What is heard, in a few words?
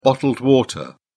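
"bottled water", British English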